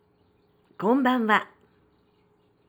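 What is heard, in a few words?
"konnbannwa", Japanese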